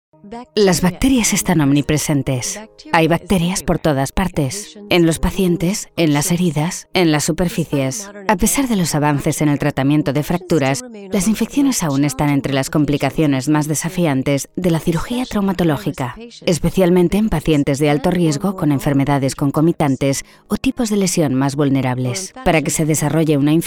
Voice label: spanish castilian documentaries